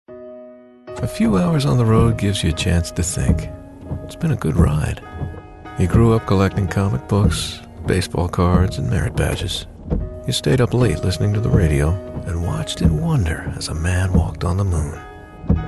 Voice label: Soft Sell

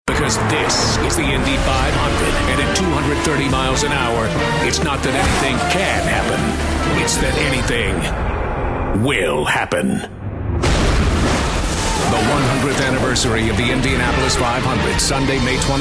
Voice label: Sports Promo

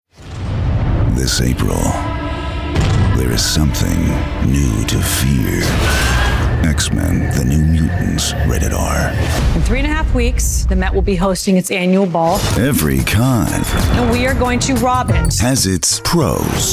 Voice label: Trailer

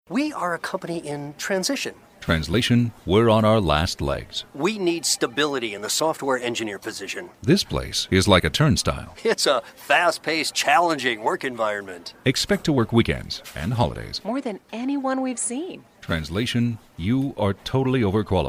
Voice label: Dry

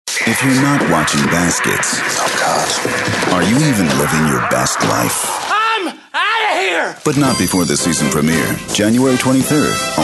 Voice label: TV Promo

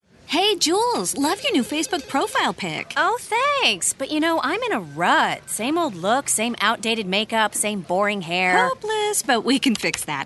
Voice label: Upbeat Perky

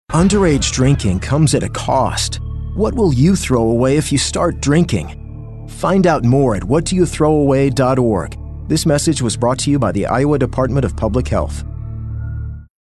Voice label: Serious

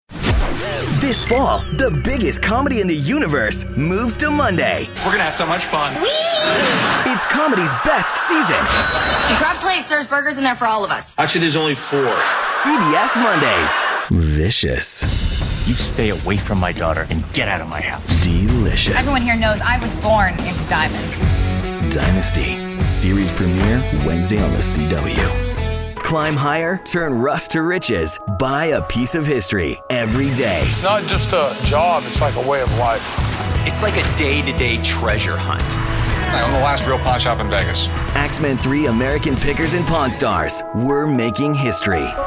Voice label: TV Promo

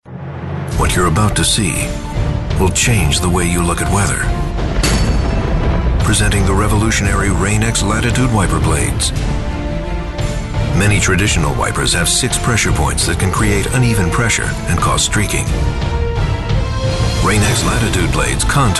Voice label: Authoritative

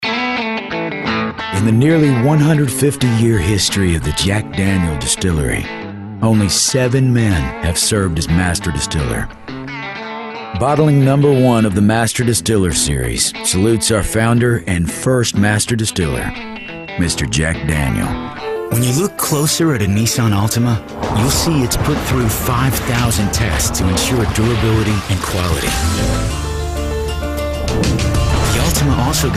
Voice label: Raspy

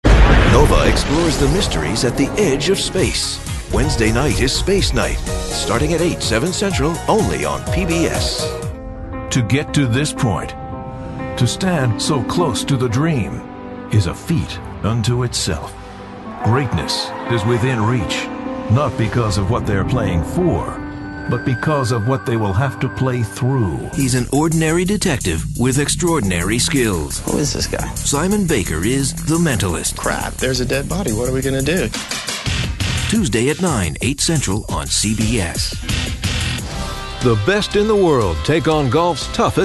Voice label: TV Promo

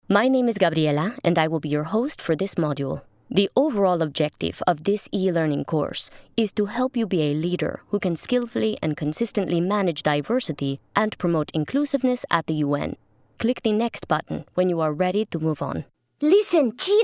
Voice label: Accent Hispanic

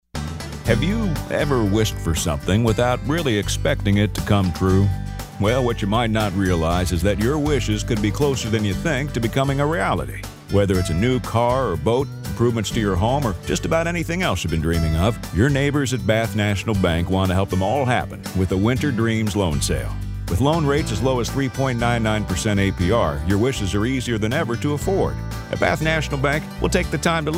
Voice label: folksy

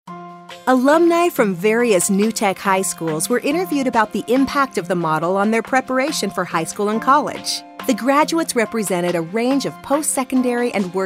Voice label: Informative

